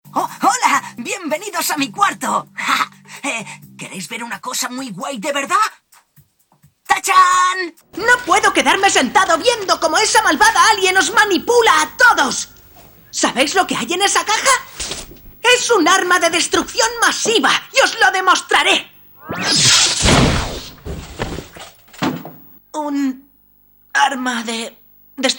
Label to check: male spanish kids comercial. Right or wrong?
Right